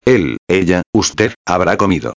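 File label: "habra comido", Spanish; modos tempos verbais; indicativo; futuro perfecto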